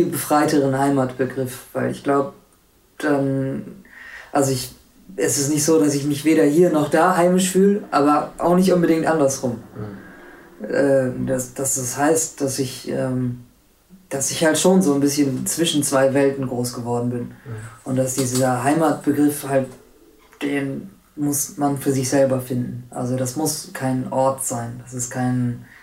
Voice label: punjab pakistan